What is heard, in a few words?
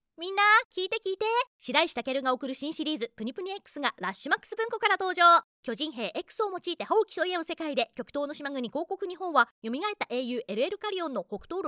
japanese animation